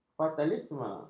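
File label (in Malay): "fatalisme", Malay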